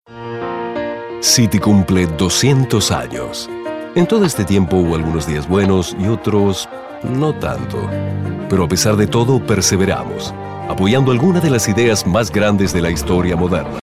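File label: Latin American Spanish, commercial; GoLocalise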